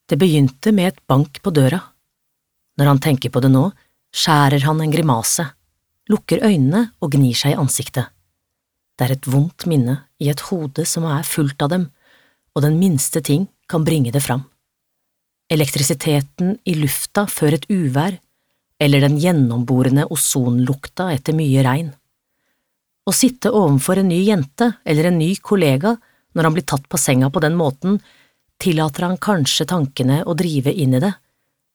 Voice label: no lydbok

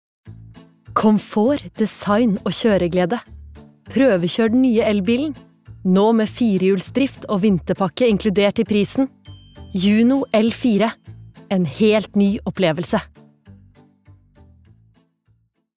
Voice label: no reklame; norwegian, female

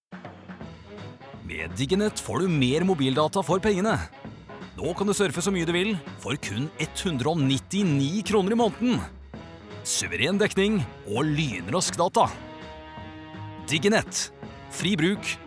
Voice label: no reklame

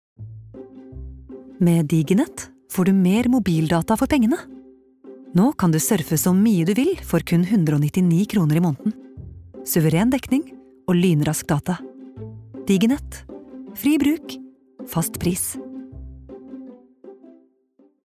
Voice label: no reklame soft

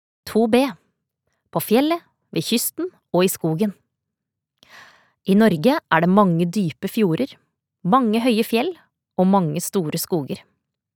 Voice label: no fagbok, norsk, kvinne

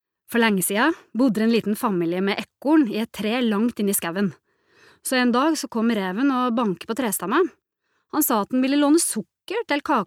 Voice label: no lillehammerdialekt

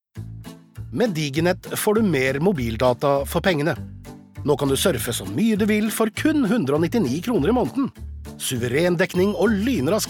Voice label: no reklame, norwegian, male

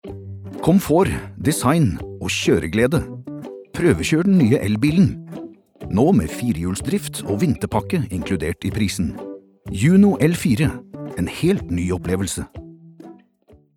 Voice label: no reklame

